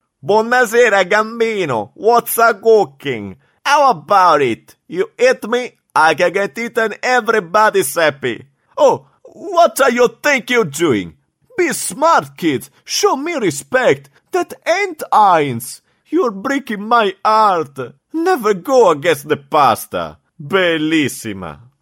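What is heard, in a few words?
Italian English, male, Sicilian accent; accent of italy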